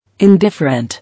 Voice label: "Indifferent", English

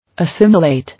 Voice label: "Assimilate", English

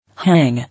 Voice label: "Hang", English

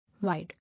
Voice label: "Wide", English

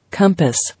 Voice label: "Compass", English

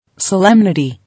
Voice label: "Solemnity", English

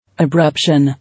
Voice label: "Abruption", English

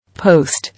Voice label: "Post", English

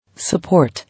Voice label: "Support", English

